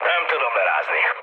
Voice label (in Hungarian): aircraft damaged mood med, hun; War Thunder